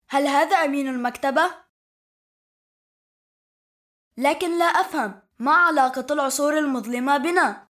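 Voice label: arabic male kid narration; voice demos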